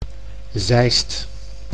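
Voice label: "Zeist", Dutch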